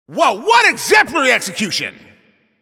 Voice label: Announcer Especially Good Shot